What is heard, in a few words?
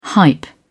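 "hype", British English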